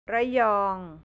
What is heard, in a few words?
"Rayong", Thai, central; ระยอง; แผนที่ประเทศไทย